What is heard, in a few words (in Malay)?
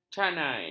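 "canai", Malay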